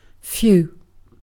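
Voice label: "few", British English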